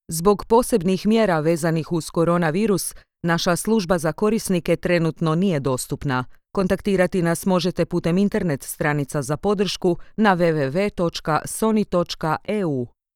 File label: IVR croatian sample